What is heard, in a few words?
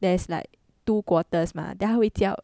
codeswitch singlish; MERaLiON-3-3B-ASR